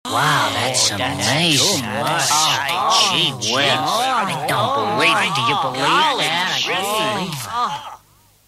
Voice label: 80s Wow Amazing, jingles